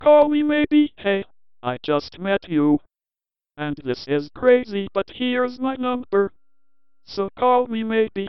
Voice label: DECtalk